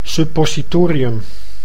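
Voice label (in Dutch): "suppositorium", Dutch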